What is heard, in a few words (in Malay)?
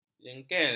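"jengkel", Malay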